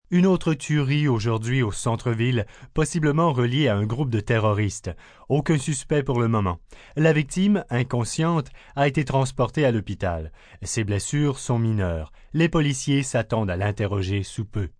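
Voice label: News Shooting, Broadcast, news, male news item in French on drive by shooting.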